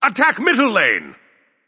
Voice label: vox attack; smite; ra; voicepack